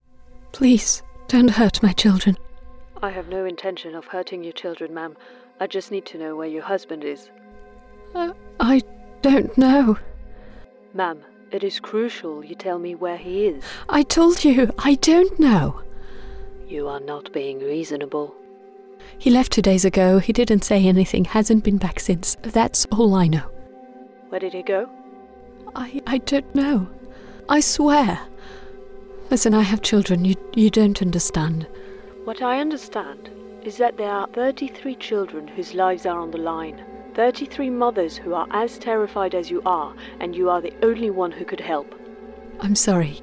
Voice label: female French English with French accent video gaming compilation